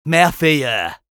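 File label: positive vocalization, French; "Merveilleux."; Medic; Commandes vocales du Medic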